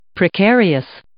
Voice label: "precarious", English

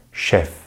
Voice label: "chef", French; easypronunciation

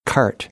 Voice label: "cart", American English

